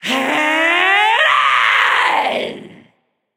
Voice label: battlecry; smite; medusa